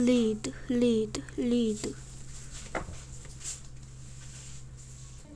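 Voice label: "lead", spoken word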